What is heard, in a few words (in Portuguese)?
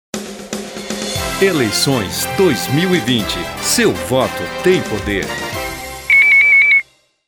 Vinheta eleições; eleições 2020